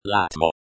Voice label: "latmo", Lojban